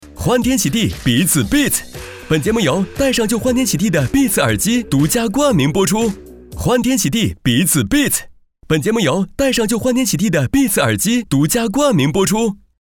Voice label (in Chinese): 广告活力动感冠名口播耳机